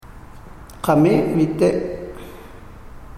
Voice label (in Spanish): moqoit cardinales; materiales bilingues; huellas